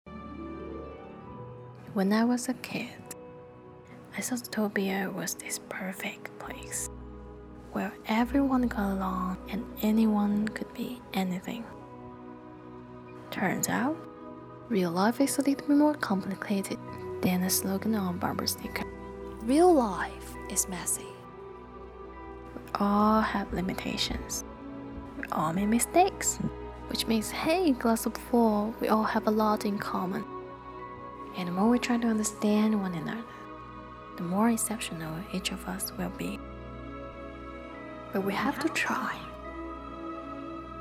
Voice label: 片段配音女声; 疯狂动物城

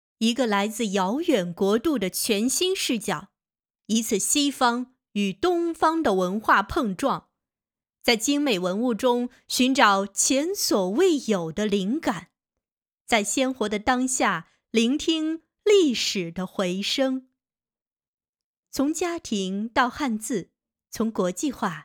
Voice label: 文化宣传片

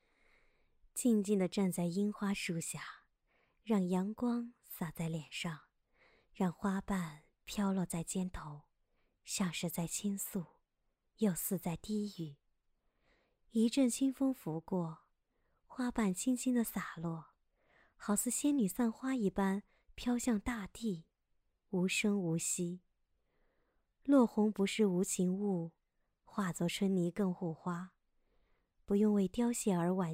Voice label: 电台风美文

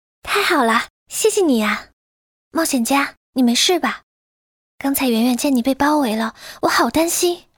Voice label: 温柔少女音

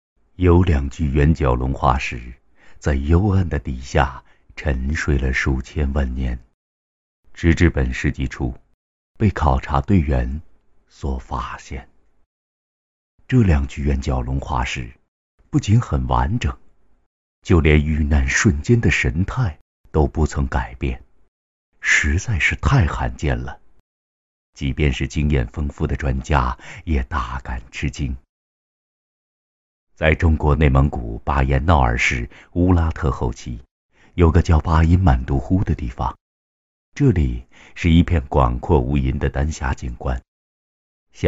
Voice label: 纪录片神秘范; 中央9套原角龙失踪之谜

